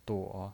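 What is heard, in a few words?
"duo" Mandarin Chinese, third tone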